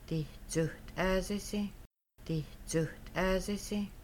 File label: We might fry chicken, Kaska; Making Bannock